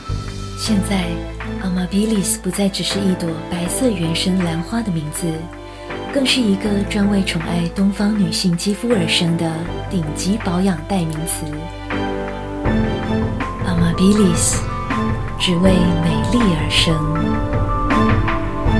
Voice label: corporate story; AMABILIS